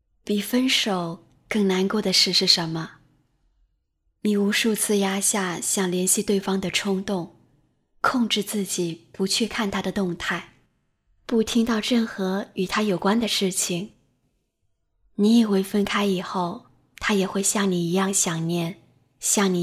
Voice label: 情感电台

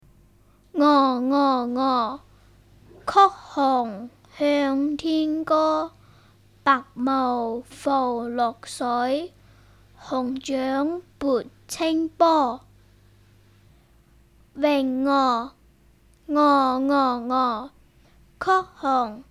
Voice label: 男童古诗

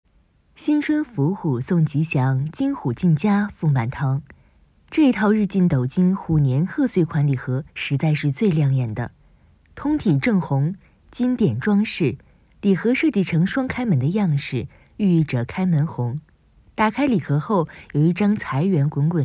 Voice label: 礼盒介绍, 女 普通话 中年（36-54）

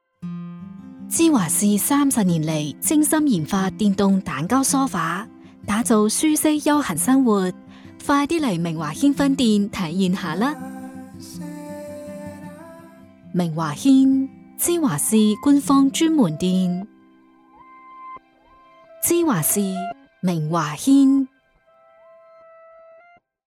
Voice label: 粤语 温馨 高端

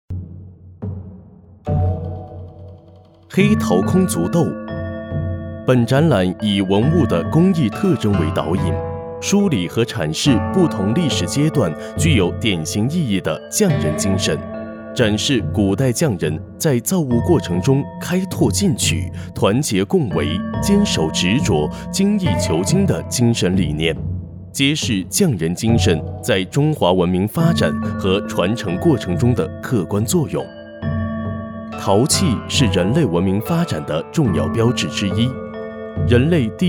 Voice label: 导览词叙述讲解

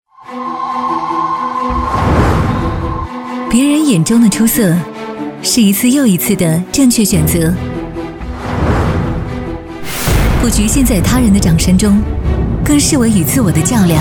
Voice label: 个性旁白; 魅力皆无边界

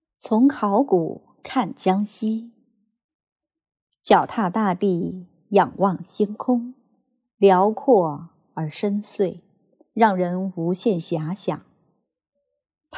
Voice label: 女干音 沉稳又大气; 文章朗读; 从考古看江西

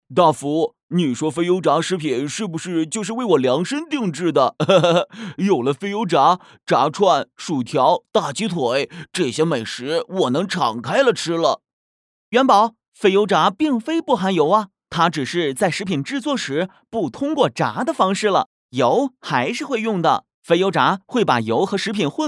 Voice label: 课件角色对话类

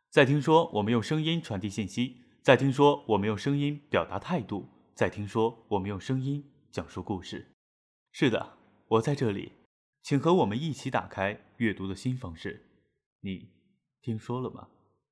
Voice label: 讲述类

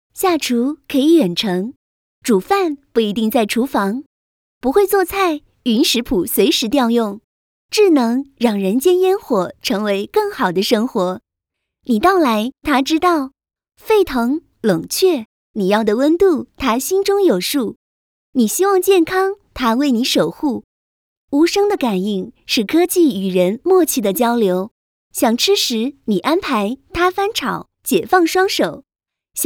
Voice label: 女声亲和自然; 生活美的

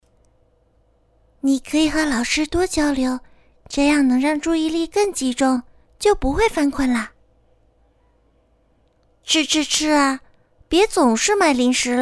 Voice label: 儿童多播; 神兽发电站

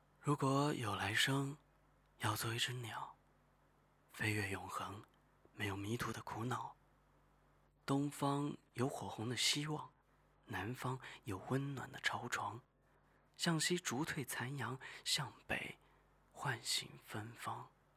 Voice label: 情感类

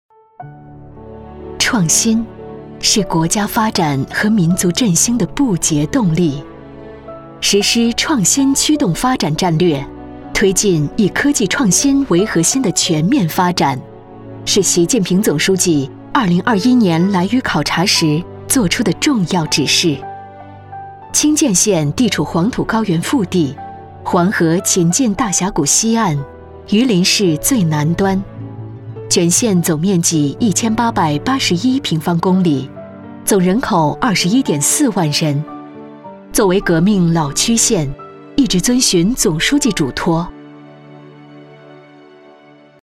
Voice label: 宣传片稳重; 清涧县科技创新

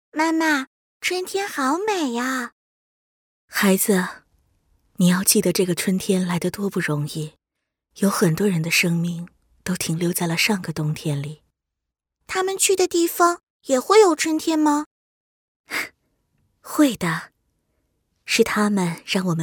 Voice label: 中文角色女孩和妈妈

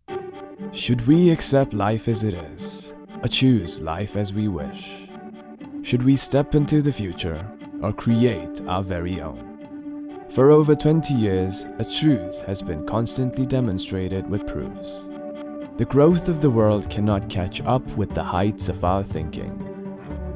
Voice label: 英文 美式英文

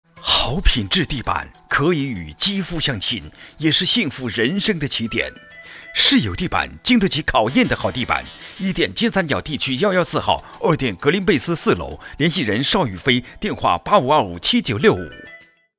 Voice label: 彩铃 地板配音; 世友地板

